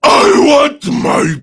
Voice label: Death Prime Grineer Male; Tau; Battle Barks